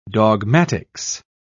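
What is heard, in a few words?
"dogmatics", British English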